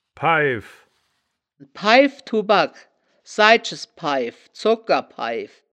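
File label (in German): "paiff", Wittlicher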